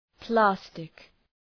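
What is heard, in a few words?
"plastic", English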